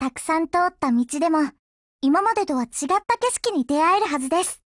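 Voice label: BLACK ACTION Japanese, Voice; GF Alarm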